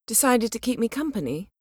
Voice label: female; Skyrim Special Edition; UVNPC Assigned; Solitude; Endarie